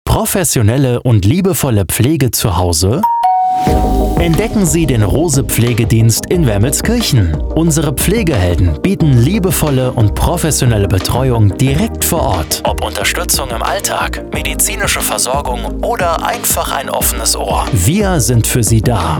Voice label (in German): Werbespot; Rose Pflegedienst